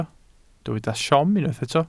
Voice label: "siom", Welsh